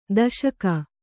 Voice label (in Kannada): "ದೇಸಾಕೆ", kannada